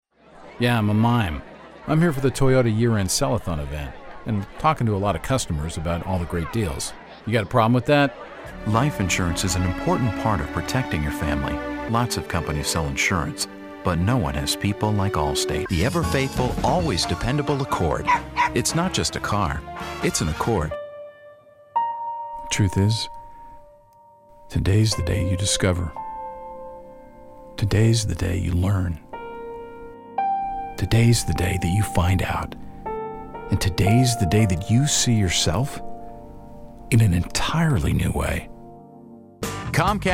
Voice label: commercial